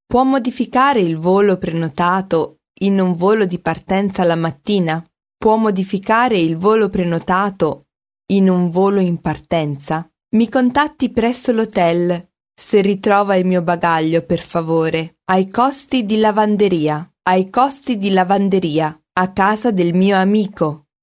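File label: Italian, female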